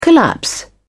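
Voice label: "collapse", British English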